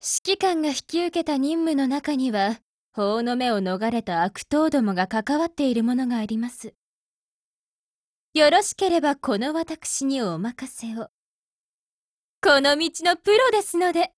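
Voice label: DIALOGUE, Japanese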